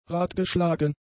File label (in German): "radgeschlagen", German; adjectives